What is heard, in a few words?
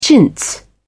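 "chintz", English, British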